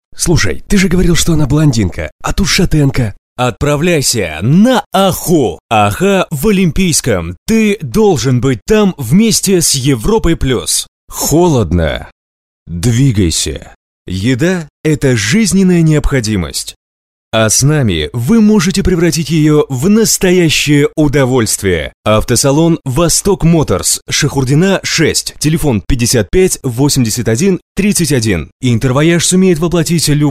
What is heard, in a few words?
rus, dictory men